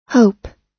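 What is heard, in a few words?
"hope", US English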